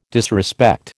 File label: "disrespect", American